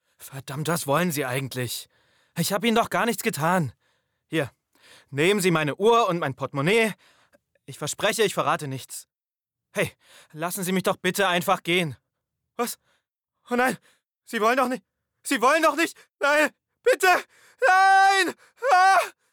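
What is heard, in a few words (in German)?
ängstlich; LOFT VOICES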